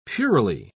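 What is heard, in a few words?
"puerilely", British English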